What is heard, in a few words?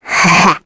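Vox Happy, Korean; kingsraid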